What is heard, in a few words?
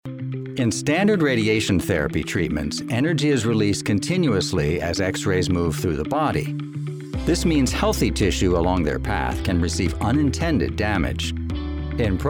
Narration Proton Therapy